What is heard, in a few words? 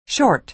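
"short", American English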